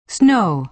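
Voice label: "snow", American English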